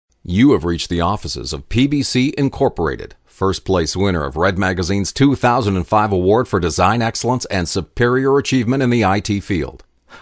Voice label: Laid Back Dry